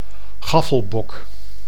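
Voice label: "gaffelbok", Dutch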